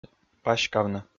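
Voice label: "паськавны", Komi, male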